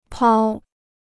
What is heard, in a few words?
"抛", Chinese